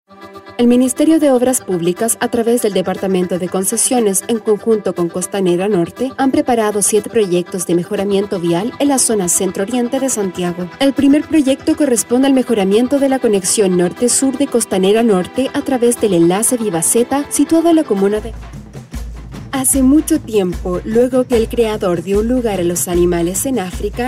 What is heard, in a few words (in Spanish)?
Locutora chilena